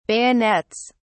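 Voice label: "bayonets", English, British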